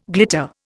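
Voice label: "glitterati", Indian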